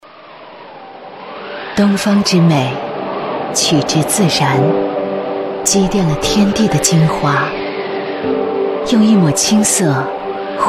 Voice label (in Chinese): 广告高级质感; 五粮液